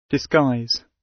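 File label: "disguise", British; Technology; Công nghệ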